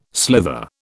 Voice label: "slither", British

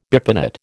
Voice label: "bipinnate", American

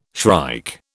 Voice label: "shrike", British

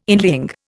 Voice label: "inlying", Indian

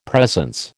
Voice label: "presence", American

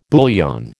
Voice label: "bouillon", American

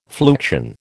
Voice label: "fluxion", American

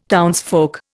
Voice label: "townsfolk", Indian

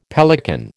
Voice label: "pelican", American

